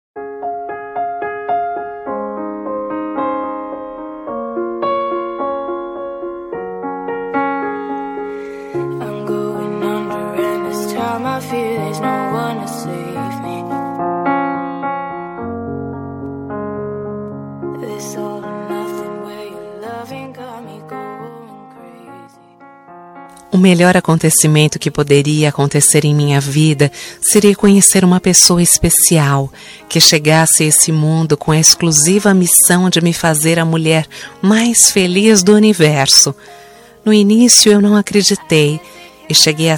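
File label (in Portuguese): romantica fem, Telemensagens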